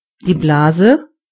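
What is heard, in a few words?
"bubble", German; gcompris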